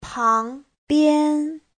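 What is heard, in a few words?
"pángbiān", Chinese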